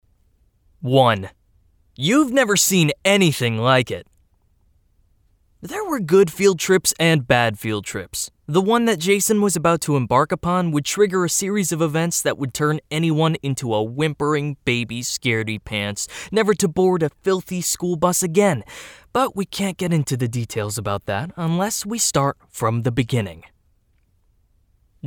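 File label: audiobook; The Proto Project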